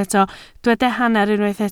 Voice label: "hanner", Welsh

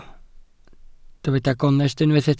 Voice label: "gonest", Welsh

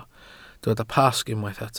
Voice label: "Pasg", Welsh

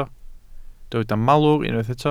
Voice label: "malwr", Welsh

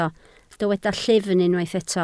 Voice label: "llyfn", Welsh

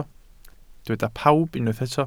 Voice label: "pawb", Welsh

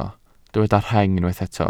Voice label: "rheng", welsh

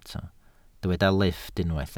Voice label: "lifft", Welsh

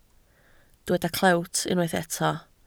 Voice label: "clewt", Welsh

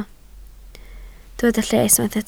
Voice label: "lles", Welsh

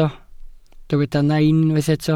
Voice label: "nain", Welsh

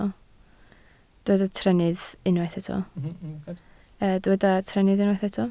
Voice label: "trennydd", Welsh

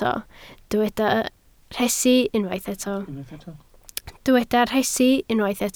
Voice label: "rhesi", Welsh

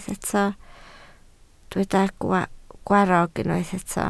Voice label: "gwarrog", Welsh

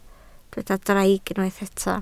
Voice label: "draig", Welsh

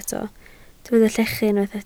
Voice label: "llechu", Welsh

